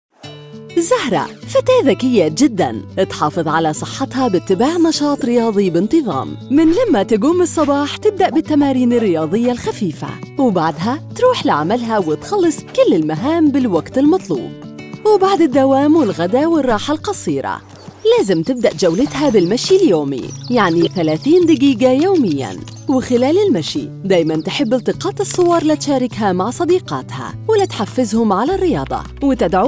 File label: Saudi Accent; Khatwa App